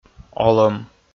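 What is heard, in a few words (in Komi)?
"олӧм", Komi, male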